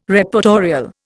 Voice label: "Reportorial", Indian